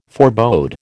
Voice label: "forebode", American